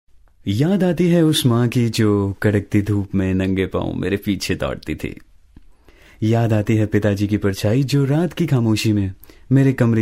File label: audition, male voice